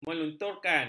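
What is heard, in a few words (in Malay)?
"melunturkan", Malay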